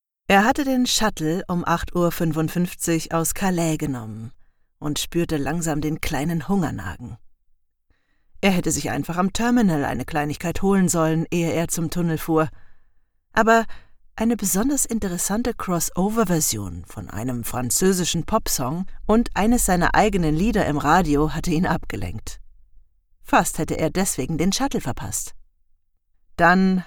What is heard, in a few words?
german audiobooks